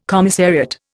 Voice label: "commissariat", Indian